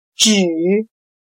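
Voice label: "只" Chinese, zhǐ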